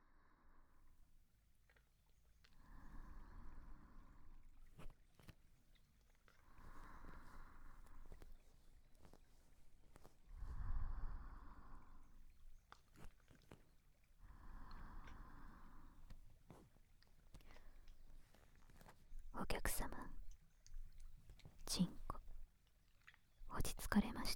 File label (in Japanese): 頭部マッサージ, ASMR